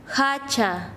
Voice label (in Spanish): "hacha", Quechua Chanka